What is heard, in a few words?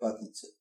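duck, Bulgarian; animals; birds; tuxpaint-stamps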